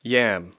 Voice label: "yam", English; Speech Pro

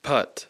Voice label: "putt", English

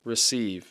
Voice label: "receive", English

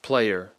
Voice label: "player", English; Speech Pro

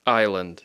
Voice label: "island", English

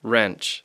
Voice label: "wrench", English

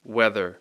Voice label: "weather", English

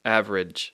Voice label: "average", English; Speech Pro

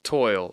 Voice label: "toil", English

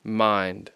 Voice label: "mind", English, I (long)

